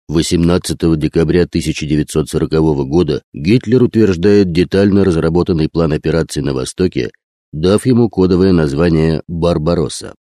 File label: russian male